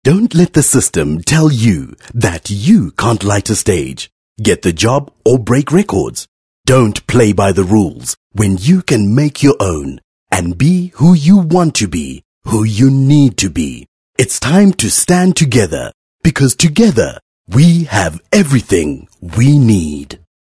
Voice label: English, corporate